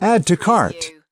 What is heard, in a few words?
US male add to cart; lynne publishing